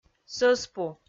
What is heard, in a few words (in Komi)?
"сӧспу", Komi